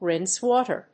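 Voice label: "rinse water", English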